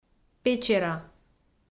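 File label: "Печера", Komi, female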